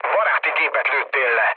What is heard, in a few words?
player killed ally, mood low, speech hun; War Thunder